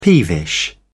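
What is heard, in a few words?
"peevish", English, British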